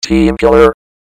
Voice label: teamkiller, robot; Announcer

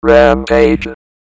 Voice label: "rampage", announcer, robot

